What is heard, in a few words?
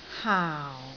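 "haau4", Cantonese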